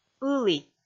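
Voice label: "ылі", Komi, female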